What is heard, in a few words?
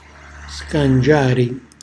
"scangiari", Calabrese; cucina calabrese